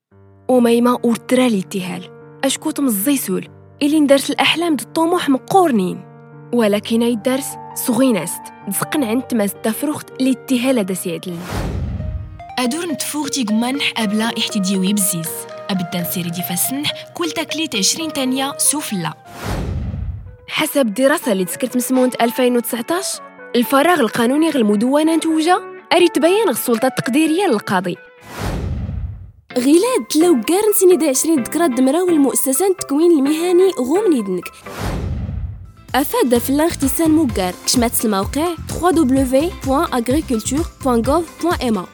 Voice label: tamazight female